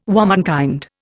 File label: "womenkind", Indian